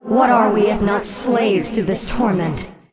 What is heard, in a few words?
Angry; warcraft3; Evil Sylvanas